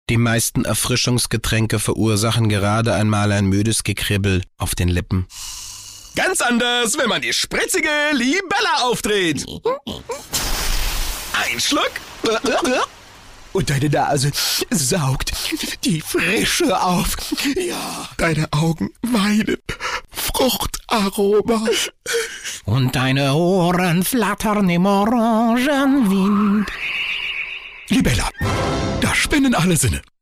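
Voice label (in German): off schraeg, sprecher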